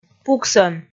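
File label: "пуксӧн", Komi, female; kpv audio dict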